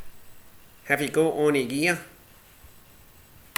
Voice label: "gear", slang; Ah huvnae seen ye aboot